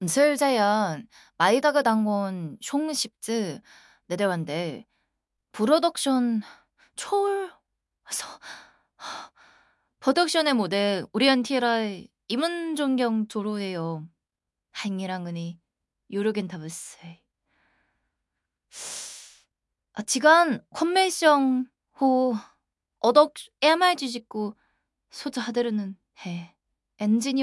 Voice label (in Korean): audiobook; ai interpretability engineering